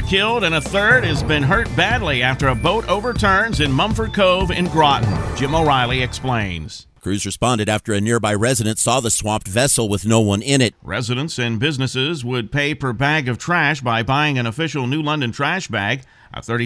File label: News